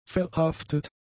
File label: "verhaftet", German; adjectives